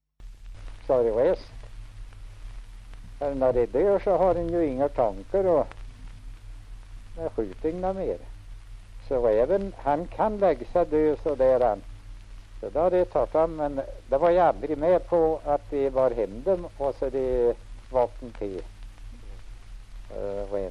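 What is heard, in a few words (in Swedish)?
Sunnemo Värmland, dialekter; Folkminnen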